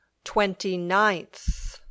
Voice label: "twenty ninth", English

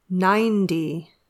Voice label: "ninety", English

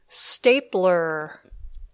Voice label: "stapler", English

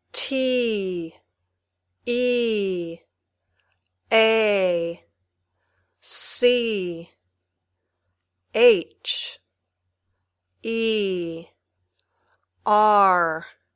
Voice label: Spelling, Basic English

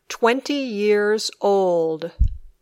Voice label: "twenty years old", English

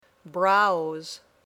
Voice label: "browse", English

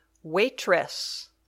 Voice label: "waitress", English

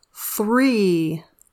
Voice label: "three", English

